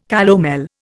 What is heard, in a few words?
"calomel", Indian